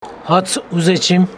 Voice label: Homshetsi i dont want to eat

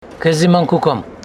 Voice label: Homshetsi i am looking for you

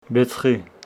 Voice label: Homshetsi alder

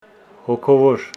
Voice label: Homshetsi alive

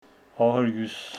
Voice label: Homshetsi november

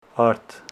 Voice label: Homshetsi to the field

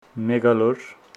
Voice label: Homshetsi other day yesterday

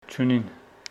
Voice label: Homshetsi they dont have

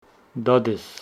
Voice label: Homshetsi my father

